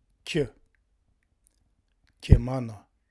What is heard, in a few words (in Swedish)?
Kj Kjemana, Romska